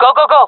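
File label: request move, jungle female; vo; agents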